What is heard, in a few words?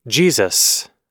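"jesus", American English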